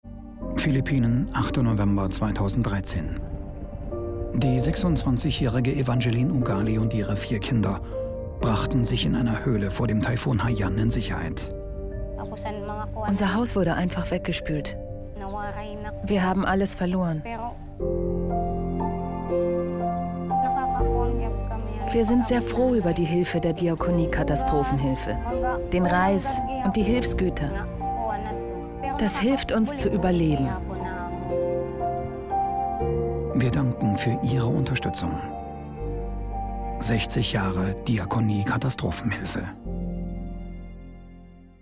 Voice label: Radiospot; spot philippinen dkh